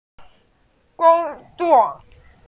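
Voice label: "gong1zuo4", Mandarin Chinese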